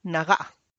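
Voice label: raw, Tlacochahuaya Zapotec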